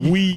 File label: "Oui", French